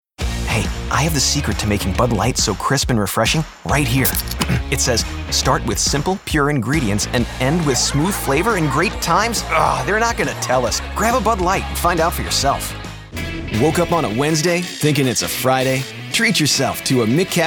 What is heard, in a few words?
Commercial